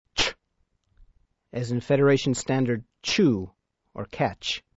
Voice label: Klingon sounds ch, Letter ch, as in chew; Note:Although represented as TWO letters, in klingon it´s only one!

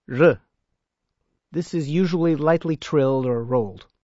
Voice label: Klingon sounds r, Letter r

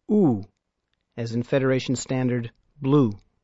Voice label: Klingon sounds u, Letter u, as in blue

